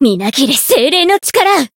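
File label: Voice chant, Japanese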